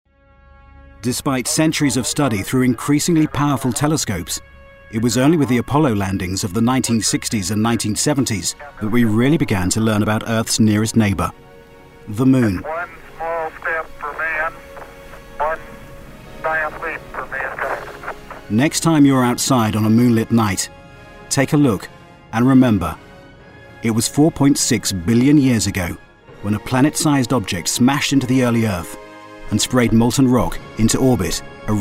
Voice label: Narration; Moon